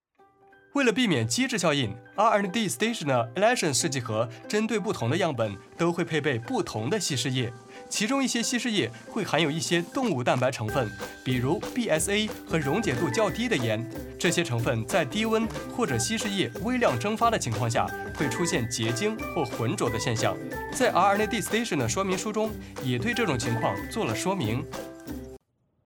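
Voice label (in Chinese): 男【MG动画】轻松科技感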